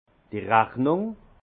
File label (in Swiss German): "rachnung", alsace; vin; facture